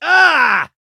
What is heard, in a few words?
hurt; 巴斯光年